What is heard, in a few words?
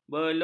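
"belum", Malay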